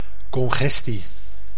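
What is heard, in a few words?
"congestie", Dutch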